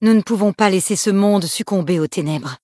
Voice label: bloodelf, female; tenebres; PhoenixCraft-1.16.5; PhoenixNPCPack; minecraft